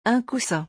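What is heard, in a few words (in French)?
"un coussin", French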